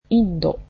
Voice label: "India", japanese

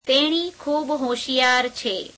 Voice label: gujarati, she is very intelligent; [adverb + adjective]; તેણી ખૂબ હોશિયાર છે; [teeṇeī kheūb heośheiyeār chhe]

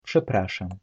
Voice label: "Sorry", polish

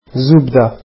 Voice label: arabic butter

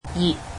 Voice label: "hot", Cantonese

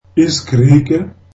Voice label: zulu Greek

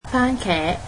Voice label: cantonese tomatoes

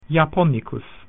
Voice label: "Japanese", Latin

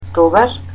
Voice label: "Then", Macedonian

